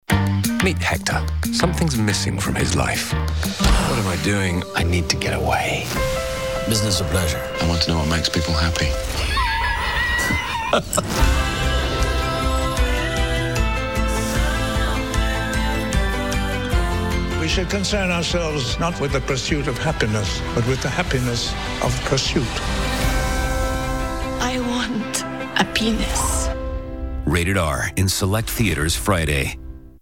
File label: TV Spots; Hector And The Search For Happiness